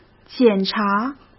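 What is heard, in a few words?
"检查", Mandarin Chinese; hsk; chinese-flashcards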